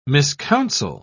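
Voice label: "miscounsel", British English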